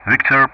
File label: "Victor", Speech ENG; Ka-50; DCS